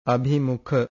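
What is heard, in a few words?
"abhimukha", Pali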